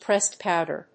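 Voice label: "pressed powder", English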